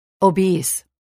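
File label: "obese", English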